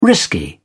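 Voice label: "risky", British English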